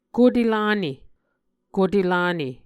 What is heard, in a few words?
"kote lane", Muscogee